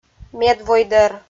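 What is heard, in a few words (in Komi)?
"медвойдӧр", Komi, female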